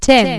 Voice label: "ten", announcer